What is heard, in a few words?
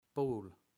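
"ball", English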